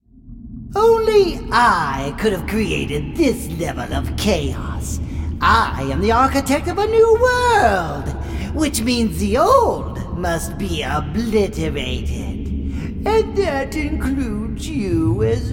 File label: Character